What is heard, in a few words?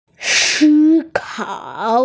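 "si kao" (think), Chinese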